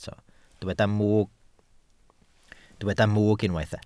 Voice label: "mwg", Welsh

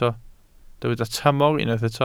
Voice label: "tramor", Welsh

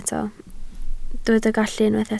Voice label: "gallu" Welsh

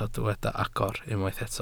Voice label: "agor", Welsh

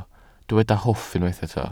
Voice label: "hwff" Welsh

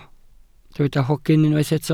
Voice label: "hogyn" Welsh

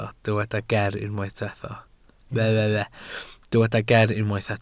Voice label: "ger" Welsh

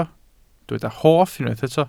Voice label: "hoff", Welsh

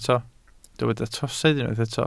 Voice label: "trosedd", Welsh

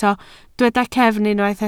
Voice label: "cefn", Welsh

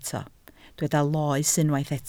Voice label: "loes", Welsh

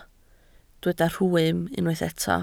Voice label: "rhwym" Welsh

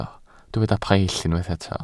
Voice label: "paill", Welsh

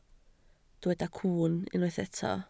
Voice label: "cwwn", Welsh

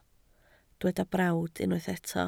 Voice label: "brawd", Welsh

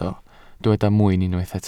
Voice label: "mwyn", Welsh

